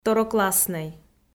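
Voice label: "второкласснӧй", Komi, female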